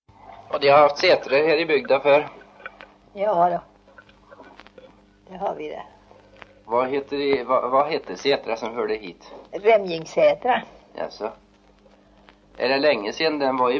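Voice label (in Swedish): Järnskog Värmland, Svenska sagor, dialekter; Folkminnen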